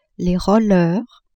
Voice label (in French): "rollers", French